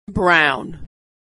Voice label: vowel aw "brown", pronunciation